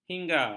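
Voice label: "hingga", Malay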